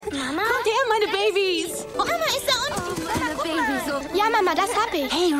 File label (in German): Kinder; Lucilles Kinder